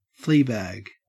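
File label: "fleabag", Australian English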